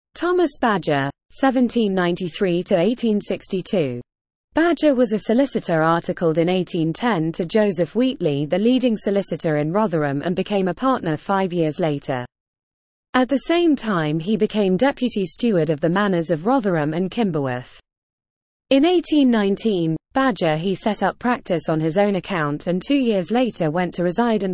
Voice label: Female